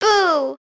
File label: MAD; DTL Proto4; MARY